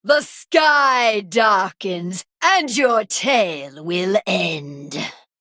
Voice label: Female Harpy Play